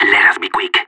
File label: radio lock n load, separatist; player vo